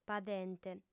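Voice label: "padente" Sardinian, female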